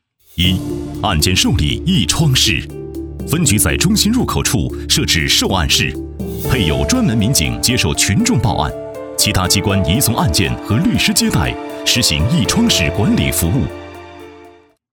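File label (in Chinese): 男国大音-扫黑专题; 分局; 反腐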